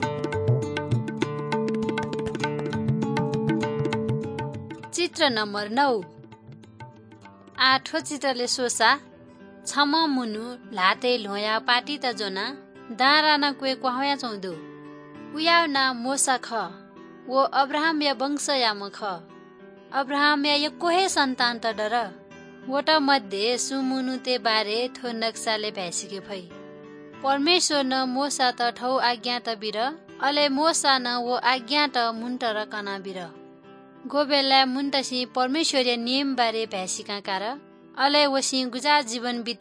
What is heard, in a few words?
good news newari balami